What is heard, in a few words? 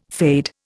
"fade", Indian